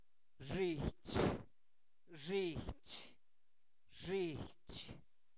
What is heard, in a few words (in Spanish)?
espalda, Zapoteco, santo domingo albarradas; cuerpo; INALI